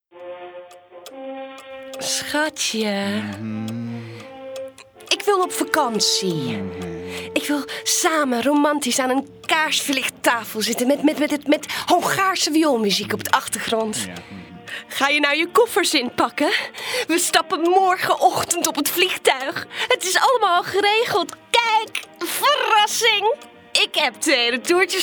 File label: dutch